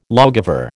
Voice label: "lawgiver", American

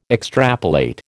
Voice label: "extrapolate", American

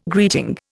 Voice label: "greeting", Indian

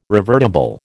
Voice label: "revertible", American

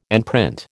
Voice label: "enprint", American

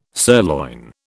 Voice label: "sirloin", British

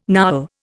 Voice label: "Nowel", Indian

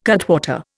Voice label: "cutwater", Indian

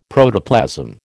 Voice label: "Protoplasm", American

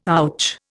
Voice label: "ouch", Indian

